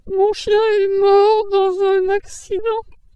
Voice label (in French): mon chien, sad, voice transformation